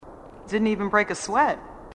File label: marine female; marine sassy; h2dialogue